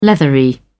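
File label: "leathery", English, British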